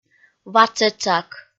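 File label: "ватшетшак", Komi, female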